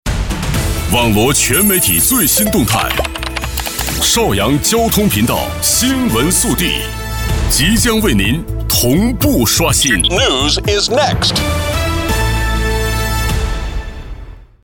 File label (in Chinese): 电台频宣, 科技洋气; 邵阳交通台